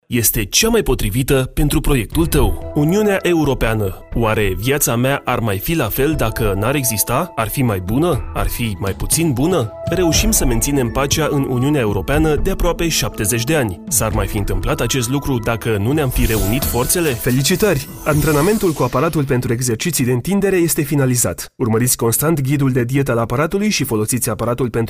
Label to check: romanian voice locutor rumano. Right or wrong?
Right